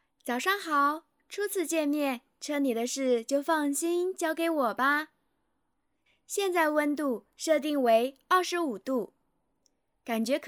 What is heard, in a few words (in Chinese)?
车载音频样音缩混